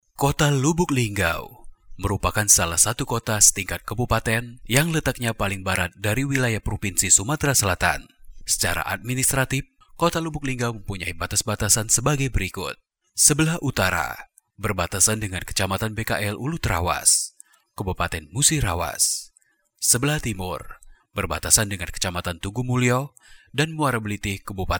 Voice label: INDONESIA FORMAL

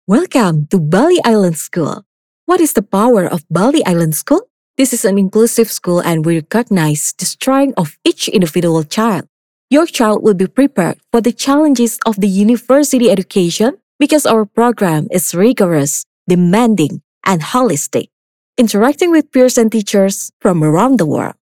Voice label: ENGLISH FORMAL

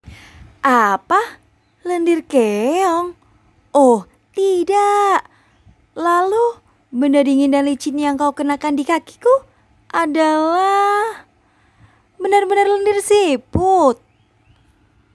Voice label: Karakter Indonesia, voiceover

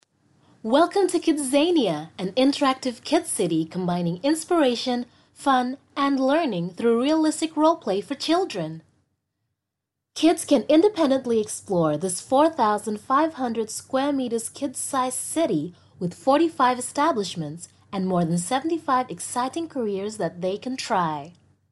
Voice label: English casual

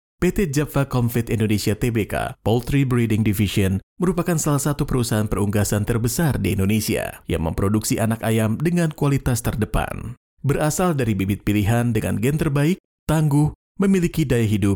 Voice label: FORMAL INDONESIA

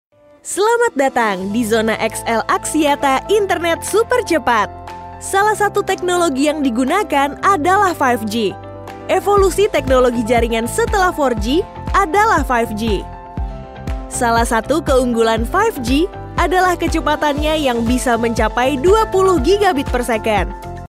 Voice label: INDO FORMAL

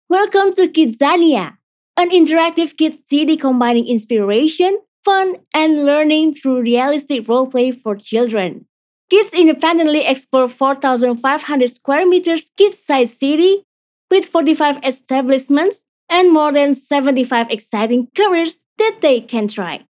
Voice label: CASUAL ENGLISH

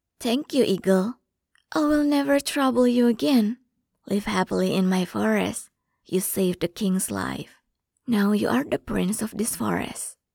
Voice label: english character

